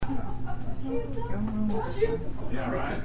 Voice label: woman's voice; Denton NC